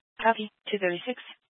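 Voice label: Police Dispatch, Scanner audio recording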